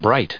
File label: "bright", en us